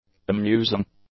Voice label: "amusing", English; adjectives

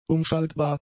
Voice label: "umschaltbar", German; adjectives

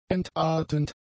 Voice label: "entartend", German; adjectives

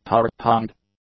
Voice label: "tart-tongued", English; adjectives

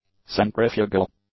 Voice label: "centrifugal", English; adjectives

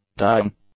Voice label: "dying", English; nouns

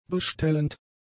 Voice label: "bestellend", german; adjectives

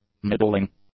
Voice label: "middling", english; adjectives

